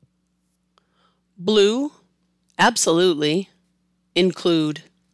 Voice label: Blue Absolutely Include, american english